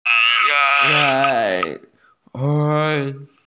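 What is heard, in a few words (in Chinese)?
"cai3 hong2" Chinese, female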